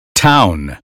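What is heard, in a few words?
"town", American English; 英语音标发音（剑桥版）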